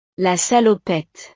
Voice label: "la salopette", frances; ropa

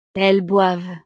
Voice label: "elles boivent", frances

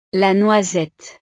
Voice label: "la noisette", frances; la avellana; frutas

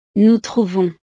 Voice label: "nous trouvons", frances

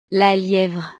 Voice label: "la lievre", frances

